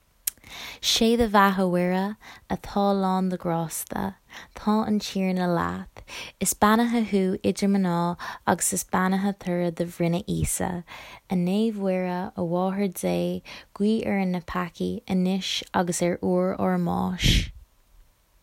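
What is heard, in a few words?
Irish Corporate; GoLocalise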